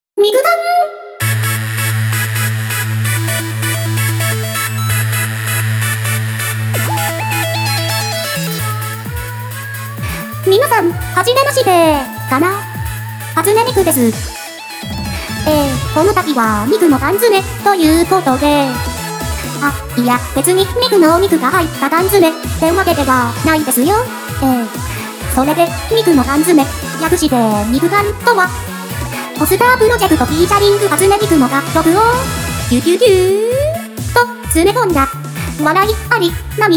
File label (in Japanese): フリートーク; Vocaloid; Hatsune Miku; みくのかんづめ